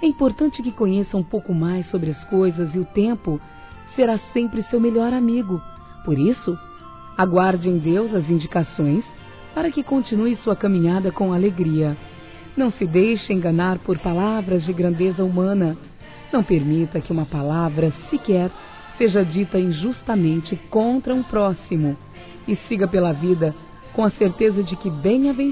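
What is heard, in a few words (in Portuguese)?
Trilha ANIVERSÁRIO 15 ANOS, Gospel, fem; Telemensagens; Telemensagens 2017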